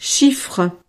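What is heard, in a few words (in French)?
"chiffre", French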